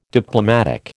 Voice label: "Diplomatic", American

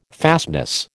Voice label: "fastness", American

